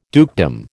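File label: "dukedom", American English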